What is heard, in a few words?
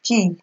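"kill", Nigerian Pidgin, female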